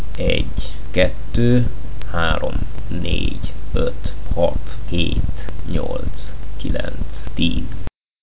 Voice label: hungarian numbers